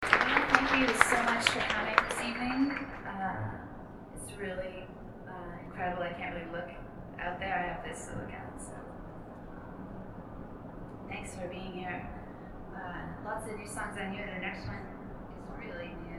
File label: chatter